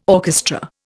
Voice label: "orchestra", Indian

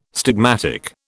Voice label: "stigmatic", British

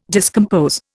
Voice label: "discompose", Indian

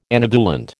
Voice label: "anovulant", American

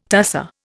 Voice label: "tusser", Indian

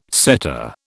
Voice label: "setter", British

